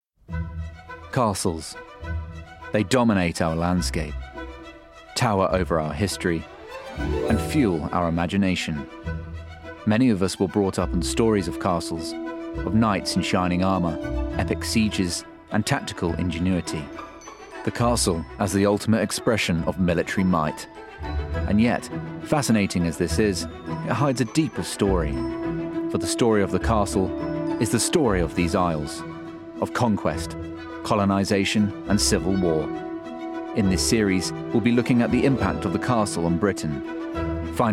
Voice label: doco